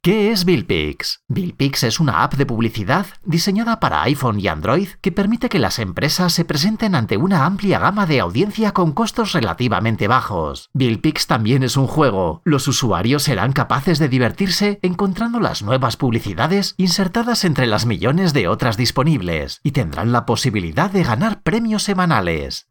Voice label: SPANISH